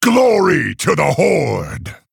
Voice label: Male Orc Greetings